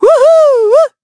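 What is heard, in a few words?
Vox Happy, Korean; Evan; kingsraid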